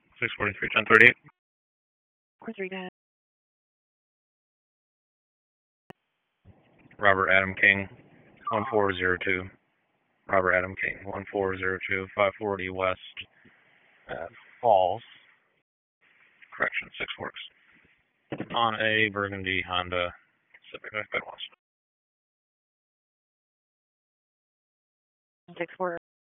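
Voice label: Dispatch, P25; WSO DISP 1; Wake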